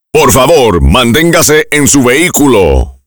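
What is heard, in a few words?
Remain in Vehicle Spanish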